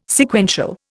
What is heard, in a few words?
"Sequential", Indian